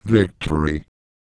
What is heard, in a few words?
victory, Microsoft Sam, Worms speechbanks